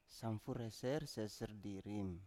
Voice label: "samfur eser seser di rim", Biak